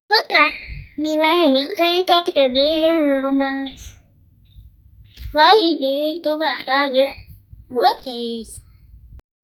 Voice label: a young girl saying amate; amateur